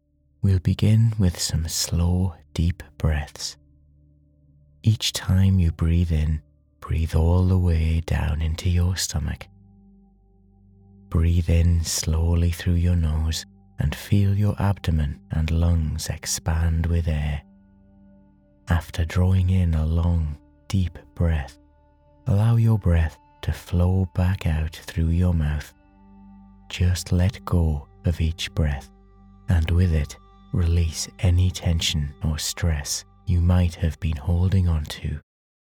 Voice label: Meditation